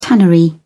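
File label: "tannery", British English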